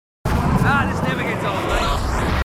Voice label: marines, aussie; h2dialogue